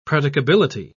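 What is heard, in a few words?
"predicability", British English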